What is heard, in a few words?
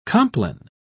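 "compline", British English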